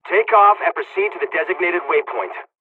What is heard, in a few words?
dialogs polish; cors mission fly; War Thunder